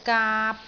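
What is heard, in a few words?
"gaap3", Cantonese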